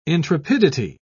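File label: "intrepidity", English, uk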